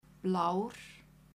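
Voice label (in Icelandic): "blár", Icelandic, female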